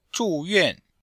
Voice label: "zhu4 yuan4", chinois; Cours-de-Chinois